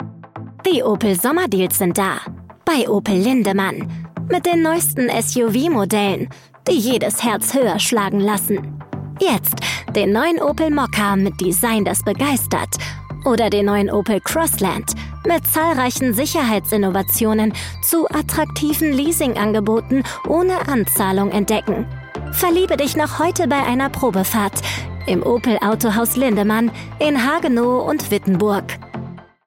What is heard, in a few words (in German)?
Werbung Opel